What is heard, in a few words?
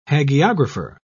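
"hagiographer", British English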